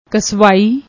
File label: "kswaai", Punjabi